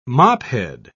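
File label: "mophead", British English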